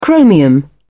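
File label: "chromium", British English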